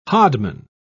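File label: "hodman", British English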